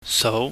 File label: "sou", pingyam